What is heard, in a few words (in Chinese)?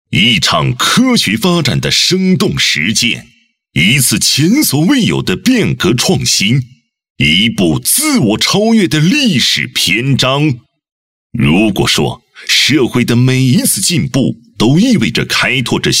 专题 企业 力度, 男国; 重庆电力公司